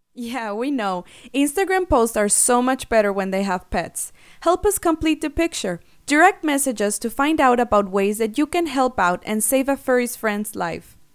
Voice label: female spanish mexican english with mexican accent nonprofit; instagram